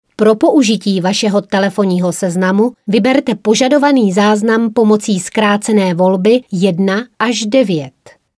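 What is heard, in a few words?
Female Czech IVR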